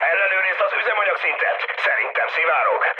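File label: Hungarian tank damaged mood high, speech; War Thunder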